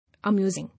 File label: "Amusing", English